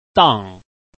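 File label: "dang", Chinese, tone 4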